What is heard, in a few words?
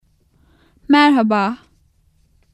turkish hello